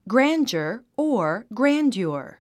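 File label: "grandeur", English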